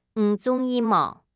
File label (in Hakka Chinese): 你中意無, 客語